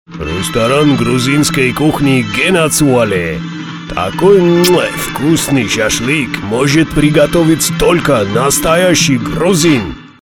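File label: Gruzin, Georgian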